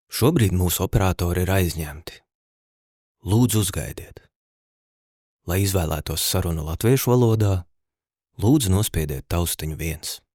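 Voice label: Latvian, General; GoLocalise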